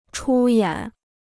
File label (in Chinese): "出演", Chinese